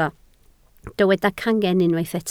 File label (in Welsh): "cangen", Welsh